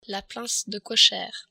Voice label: pince kocher, french

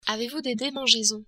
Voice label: demangeaisons, french

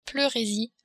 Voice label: "pleuresie", french

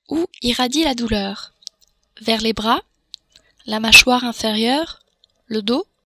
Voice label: ou irradie, french

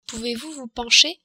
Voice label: "pencher", french

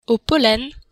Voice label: "pollen", french

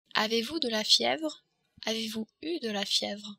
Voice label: fievre, french

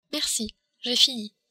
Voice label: "merci", french

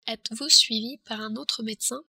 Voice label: suivi medecin, french

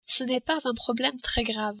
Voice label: pas grave, french

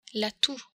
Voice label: "toux", French

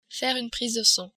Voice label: prise sang, french

